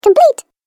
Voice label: Cartoon Game Voice "Complete"; Cartoon Voice Sound Effects

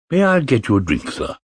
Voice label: May I Get You A Drink, Male

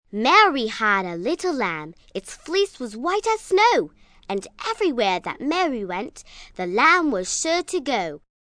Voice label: Rhyme, 1 girl, English accent, human voice, kid; Talking Sound Effects; 'Mary Had a Little Lamb'; child

